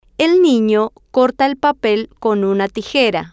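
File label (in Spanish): el nino corta el papel con una tijera, Spanish, female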